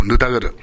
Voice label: "nudaguru", minamiyamagata